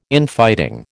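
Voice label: "Infighting", American English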